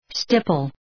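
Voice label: "stipple", English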